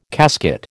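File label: "casket", American English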